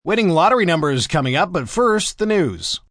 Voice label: Lotto Numbers M, Broadcast, announcer, breakaway, male